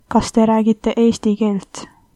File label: do you speak, estonian